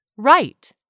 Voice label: "RIGHT", english; 3000 words

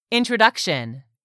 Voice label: "introduction", English word; 3000 words

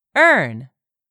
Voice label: "earn", English; 3000 words

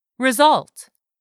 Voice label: "RESULT", english; 3000 words

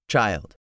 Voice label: "child", english; 3000 words

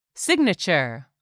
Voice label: "SIGNATURE", english; 3000 words

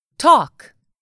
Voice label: "TALK", english; 3000 words

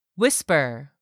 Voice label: "whisper", English word; 3000 words

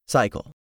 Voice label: "cycle", English; 3000 words

